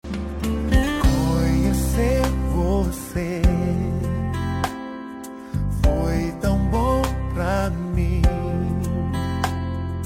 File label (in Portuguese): dia dos namorados reconciliação, voz feminina